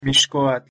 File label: pronunciation nah mixcoatl